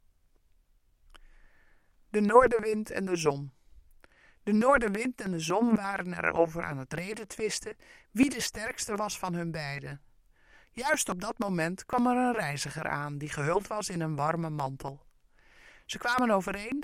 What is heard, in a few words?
Pulse Burg Resynthesis, North Wind and the Sun; VowelBender